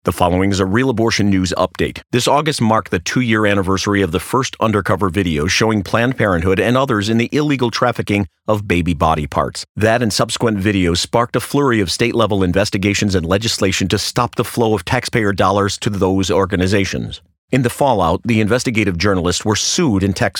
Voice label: Radio; Compass Care; Selling Baby Parts